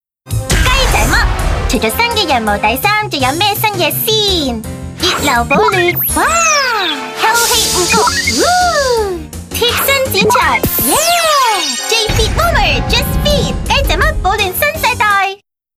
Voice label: TVC